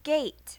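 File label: "gate", English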